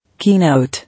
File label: "Keynote", English